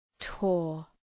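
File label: "tore", English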